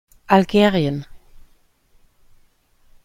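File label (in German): "algerien", German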